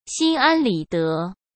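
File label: "心安理得", Mandarin Chinese; chinese-flashcards; hsk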